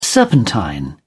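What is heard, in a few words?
"serpentine", British English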